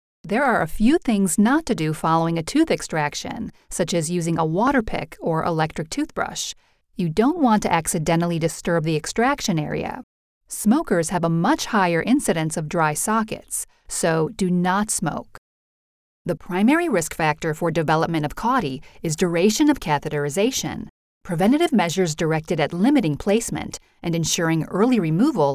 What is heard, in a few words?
medical Narration